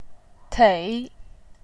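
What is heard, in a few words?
"tei", Mandarin Chinese, third tone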